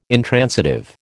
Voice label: "intransitive", American